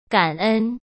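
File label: "感恩" Mandarin Chinese; chinese-flashcards; hsk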